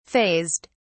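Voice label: "fazed", British English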